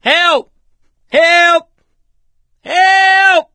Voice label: call for rescue, survivor voice